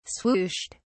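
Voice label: "swooshed", British English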